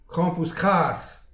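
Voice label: "krampouezh kras", Breton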